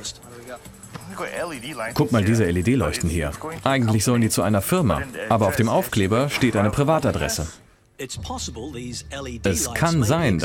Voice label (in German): Sprecher Overvoice Zoll Grossbritannien; LOFT VOICES